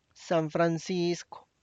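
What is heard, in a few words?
tlacochahuaya, Zapotec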